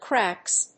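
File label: "cracks", English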